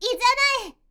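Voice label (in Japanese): magi girl "izanae", Japanese; magi syozyo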